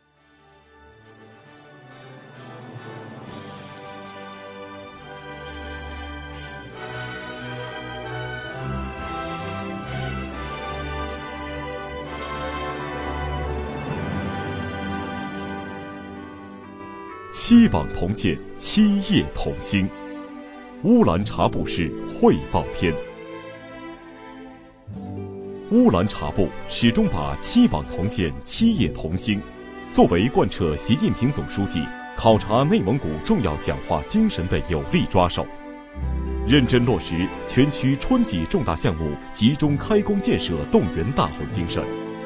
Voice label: 男 专题 大气 激情; 乌兰察布汇报片

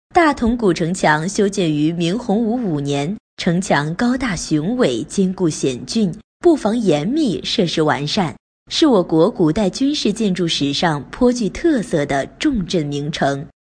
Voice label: 女 专题 讲述

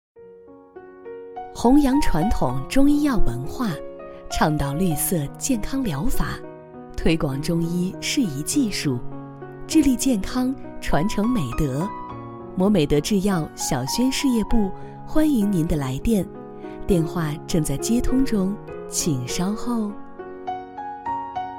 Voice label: 女 彩铃; 小宣事业部

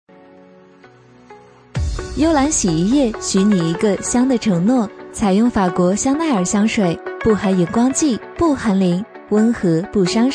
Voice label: 女 促销甜美 洗衣液, 促销女配

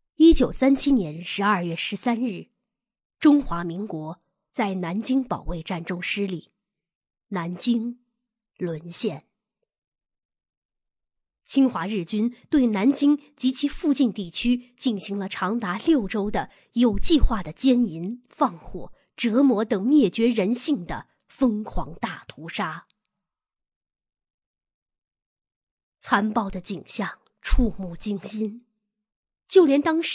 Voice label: 女 书籍推荐

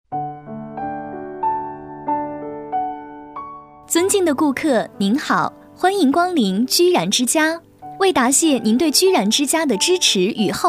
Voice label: 女 温馨播报; 居然之家

